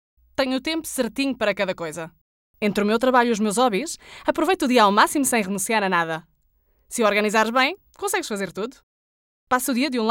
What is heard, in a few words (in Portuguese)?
Spot; AUSONIA